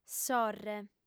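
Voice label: "sorre", lingua sarda, female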